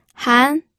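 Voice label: "han", Mandarin Chinese, second tone; pinyin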